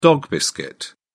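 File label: "dog-biscuit", British English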